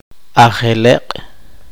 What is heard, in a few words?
poqomchi